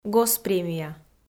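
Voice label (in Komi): "госпремия", Komi, female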